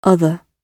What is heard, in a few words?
"other", English